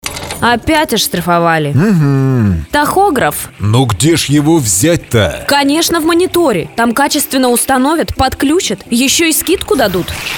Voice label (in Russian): Партнерский рекламный ролик